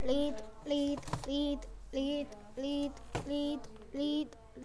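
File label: "lead", spoken word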